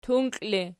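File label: "tunkli" ergative, Archi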